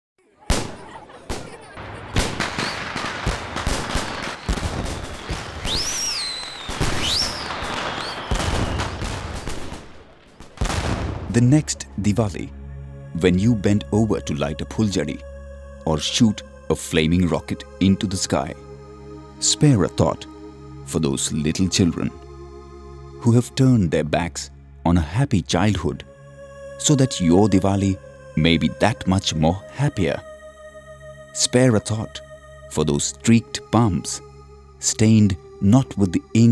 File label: Short film VO English; Child Labour